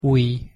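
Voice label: "ujj", Hungarian pronunciation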